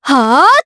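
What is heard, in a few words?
Vox Casting, Japanese; Leo; kingsraid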